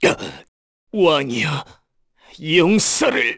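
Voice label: Dead, Korean; heroes; Roman; kings raid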